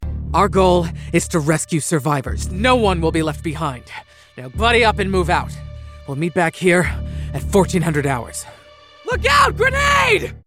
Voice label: Military colonel war tactical fighter commander gravitas leader army; interactive - action